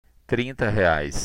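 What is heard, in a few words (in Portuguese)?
"trinta", Portuguese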